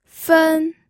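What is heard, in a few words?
"fen", pinyin, first tone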